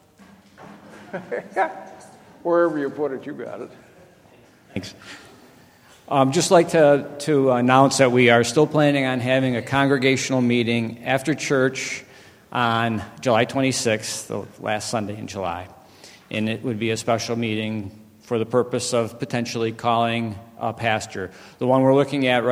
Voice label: Welcome and Announcements